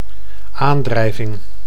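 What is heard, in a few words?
"aandrijving", Dutch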